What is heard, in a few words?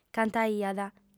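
"cantaiat", female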